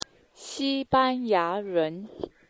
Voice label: Spanish